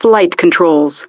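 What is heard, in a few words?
"Flight Controls", English; DCS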